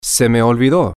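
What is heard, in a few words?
I forgot, spanish, male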